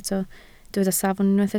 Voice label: "safon", Welsh

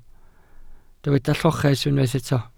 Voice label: "lloches", Welsh

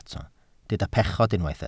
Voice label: "pechod", Welsh

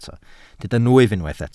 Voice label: "nwyf", welsh

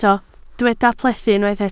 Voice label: "plethu", Welsh

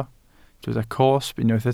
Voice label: "cosb", welsh

